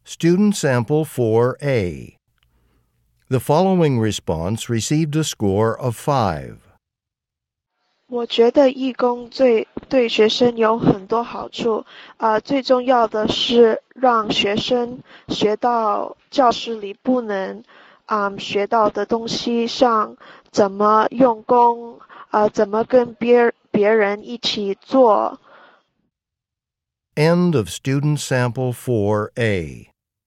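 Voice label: chinese conversation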